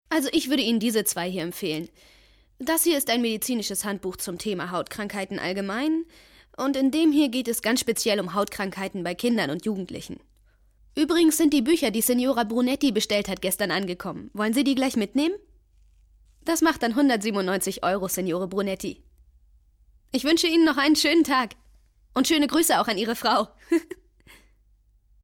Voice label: synchron

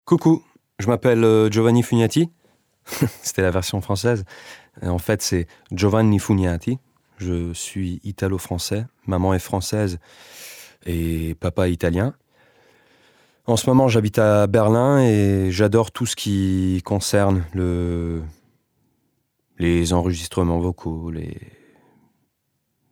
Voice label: Vorstellung FR

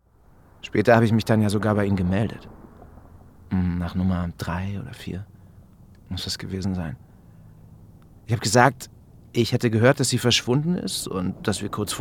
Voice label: Hörspiel, Audio Drama, German; Schwarzes Rauschen; LOFT VOICES

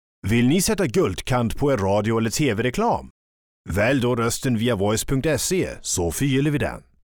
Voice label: Werbung Claim

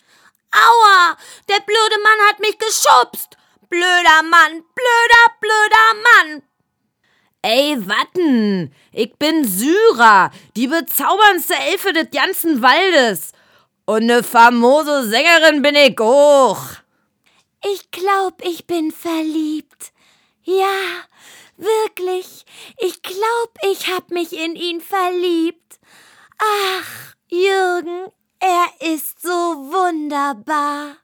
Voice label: trickstimmen mix; LOFT VOICES

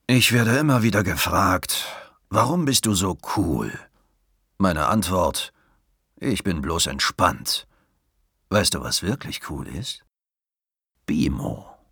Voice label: werbung cool

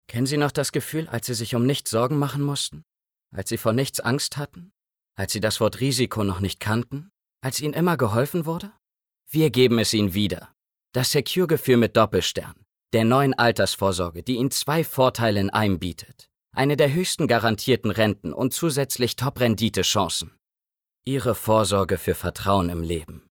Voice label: werbung versicherung

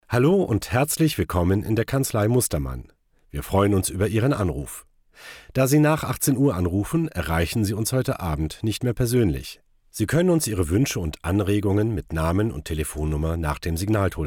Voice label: telefonansage

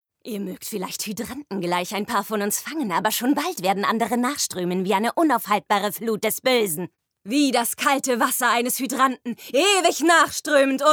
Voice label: Animation

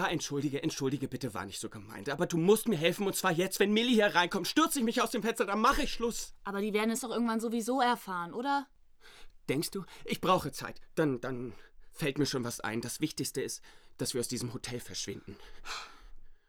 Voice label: Frau Prostituierte

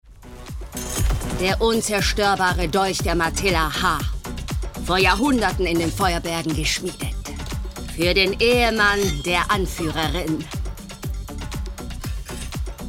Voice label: ANIMATION

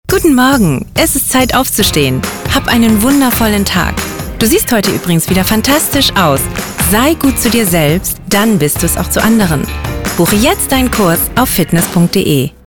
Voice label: Sprecherdemos Fitness

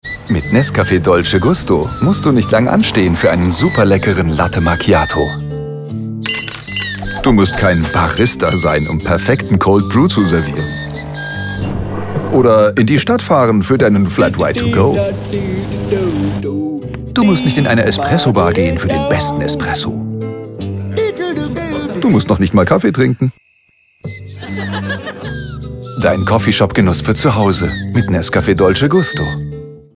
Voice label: werbung; dolce gusto

